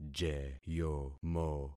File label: "jeyomo", concat syllables; Affixing experiment